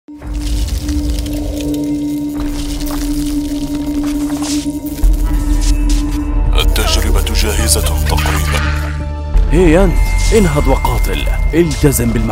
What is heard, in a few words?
arabic video games